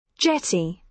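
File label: "jetty", British English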